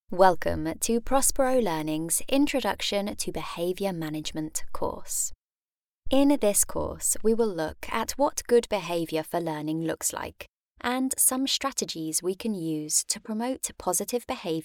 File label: eLearning